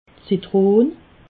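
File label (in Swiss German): "zitron", Alsatian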